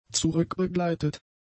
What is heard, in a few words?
"zurückbegleitet", German; adjectives